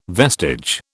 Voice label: "vestige", British

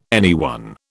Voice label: "anyone", British

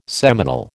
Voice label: "seminal", American

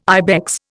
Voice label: "ibex", Indian

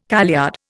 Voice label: "kaleyard", Indian

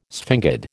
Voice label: "sphingid", American

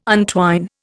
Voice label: "untwine", Indian

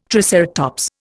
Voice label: "triceratops", Indian

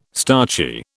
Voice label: "starchy", British

